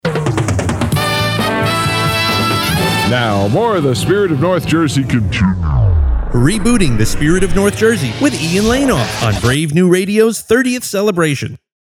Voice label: name shout; Hit Radio; Reboot